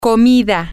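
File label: food, spanish, female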